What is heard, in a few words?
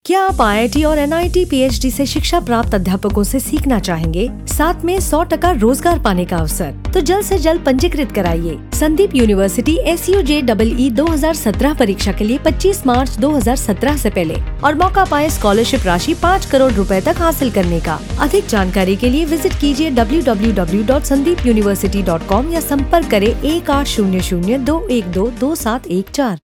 Hindi